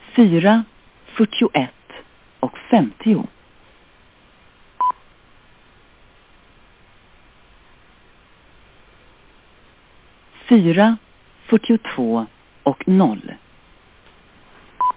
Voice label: Sweden Time service; Zagranica